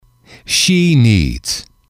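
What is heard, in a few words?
"she Needs", male, english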